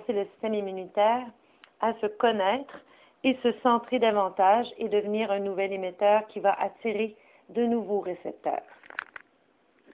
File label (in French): Bonne pose de voix